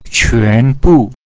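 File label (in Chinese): "quan bu", Mandarin Chinese pinyin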